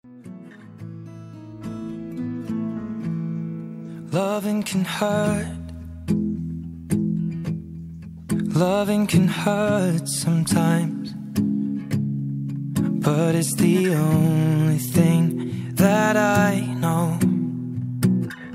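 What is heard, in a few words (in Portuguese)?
dia das mães female filha, Dia das Mães – Para minha Mãe – Voz Feminina; Telemensagens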